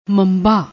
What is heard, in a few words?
"mambaa", Punjabi